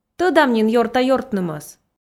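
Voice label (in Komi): "тӧдам нин ёрта-ёртнымӧс", Komi, female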